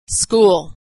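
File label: "school", American English; vowel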